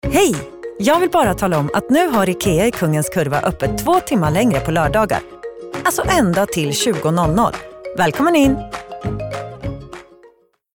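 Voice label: Swedish, female, reklam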